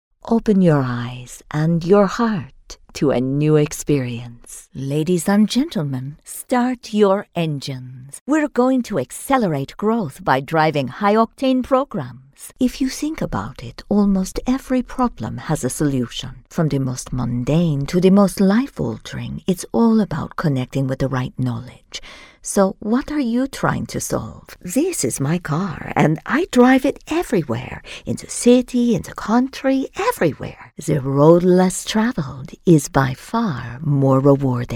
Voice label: Euro Mix Accent

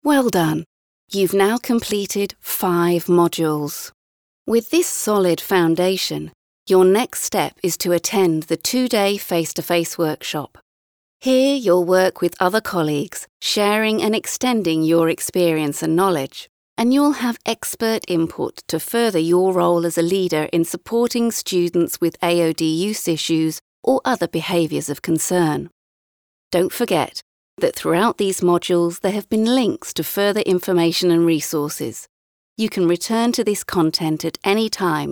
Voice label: Edu Elearning

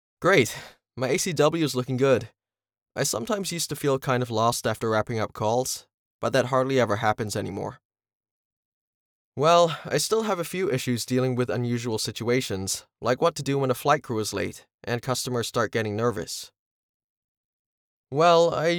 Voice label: US Accent Elearning, standard us natural

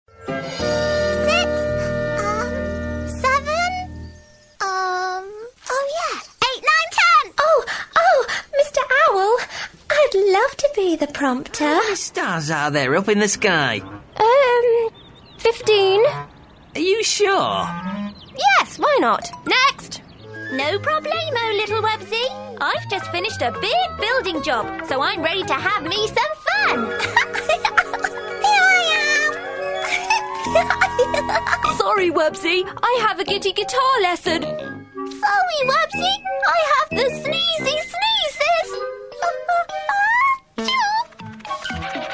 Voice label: CHARACTER, en-NZ

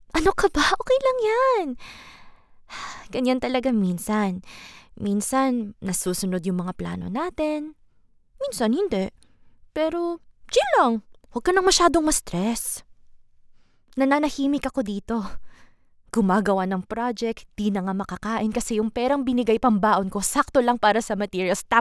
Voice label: Tagalog; language

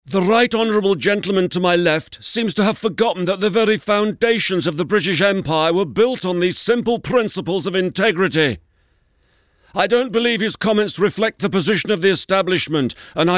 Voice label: UK Politician voice

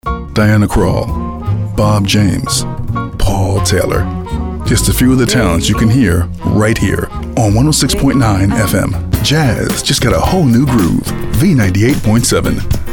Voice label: Radio

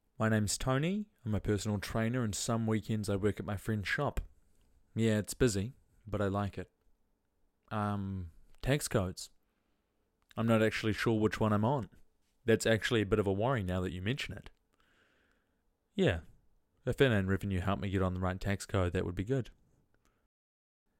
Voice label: Voice Actor; BigMouth Voices

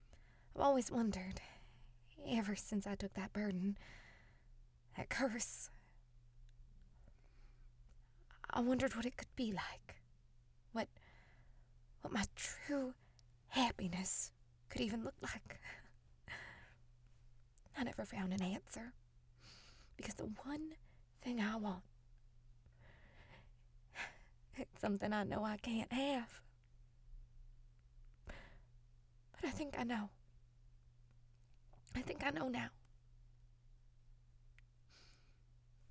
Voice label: southern accent

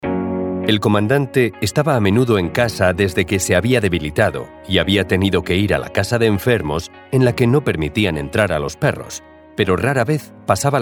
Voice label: AUDIOBOOK SPANISH EURO MALE

